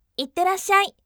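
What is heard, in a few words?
"itterassyai", bright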